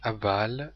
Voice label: kamatz niqqud hebrew vowels